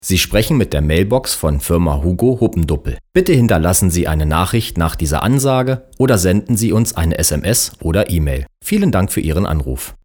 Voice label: mailbox